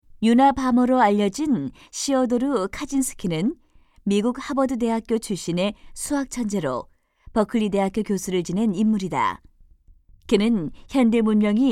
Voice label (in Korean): 내레이션; 도덕; 도덕적 실천